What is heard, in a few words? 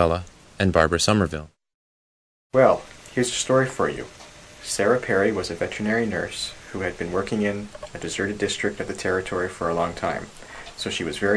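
ontario, International Dialects of English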